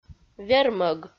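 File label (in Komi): "вермӧг", Komi, female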